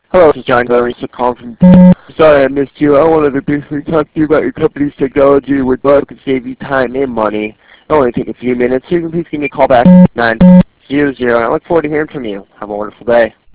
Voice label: Voice Mail